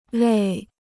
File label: "累", Chinese